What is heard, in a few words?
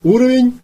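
level, Basque; gcompris-data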